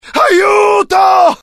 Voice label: fear, IT; mohaa; dialogue

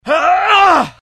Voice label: anger, dialogue IT; mohaa; attack

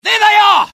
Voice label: alert, dialogue UK; mohaa

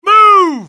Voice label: suppress, dialogue generic US; mohaa

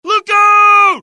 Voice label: alert, dialogue generic US; mohaa

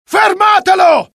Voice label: alert, dialogue IT; mohaa; attack

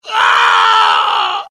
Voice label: death, UK; mohaa; dialogue

